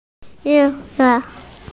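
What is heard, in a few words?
"qie2zi5", Mandarin Chinese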